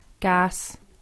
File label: "Gas", english, Ireland